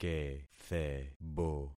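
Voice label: "kethebo", concatenated syllables; Affixing experiment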